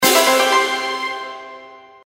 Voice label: announcement; Golden Shanghai